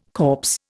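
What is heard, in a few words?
"corpse", Indian English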